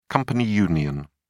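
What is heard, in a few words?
"company union", British English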